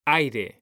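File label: "aire", Spanish, male; mekanta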